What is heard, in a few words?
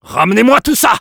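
Spy move up, French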